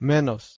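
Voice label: "meh1nos", Proto-Indo-European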